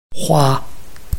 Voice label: "hua1", Chinese